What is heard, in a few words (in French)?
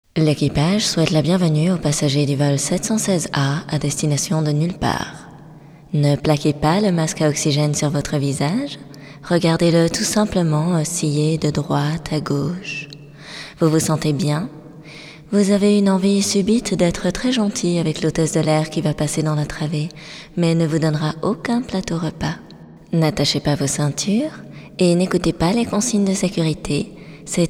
hotesse